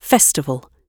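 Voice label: "festival" British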